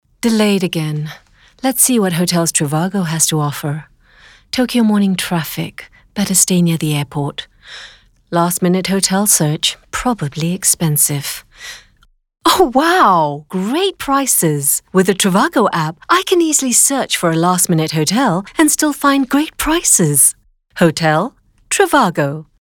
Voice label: ENG character; Trivago

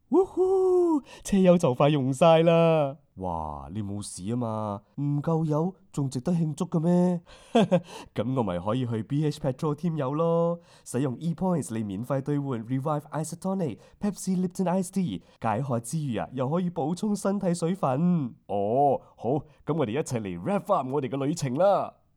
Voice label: Cantonese; BHPetrol

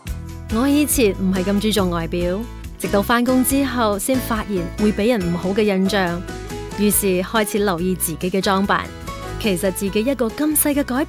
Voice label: mature

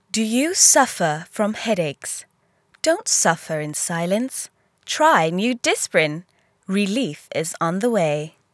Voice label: sample Ad; Disprin

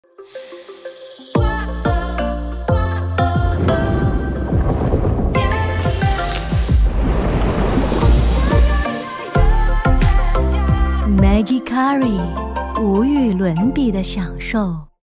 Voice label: Mandarin Character, Style: Dramatic & Exaggerated

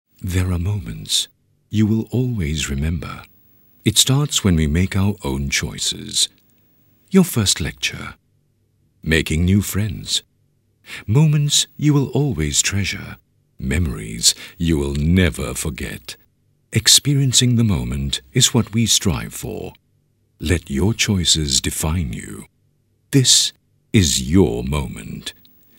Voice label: VO english; SunwayUniversity

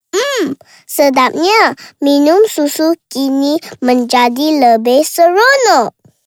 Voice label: Bahasa; Minum Susu

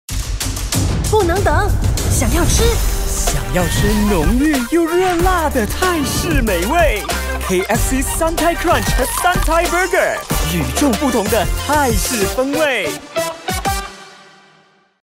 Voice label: Mandarin - Exciting, Mischieve, Enticing; KFC

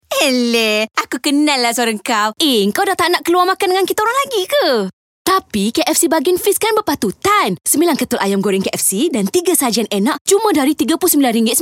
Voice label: Cold Vo

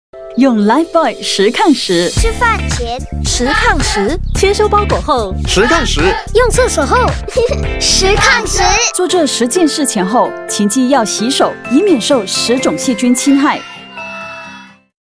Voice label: Mandarin; Life Bouy

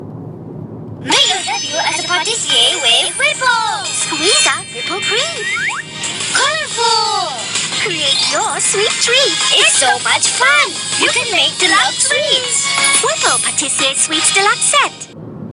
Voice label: ENG; Whipple Patissier

